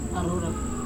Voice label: "arora" rope, Serewen

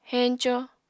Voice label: "heñco", apatani

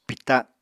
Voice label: "pìta", Apatani

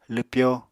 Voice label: "lwpyo", Apatani

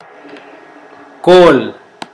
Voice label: gold, Mokilese

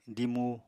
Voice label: "dimu", Apatani

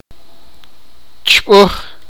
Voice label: sipakapense; spit

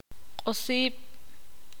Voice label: ixil; luchar pelear

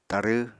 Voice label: "tarw", apatani

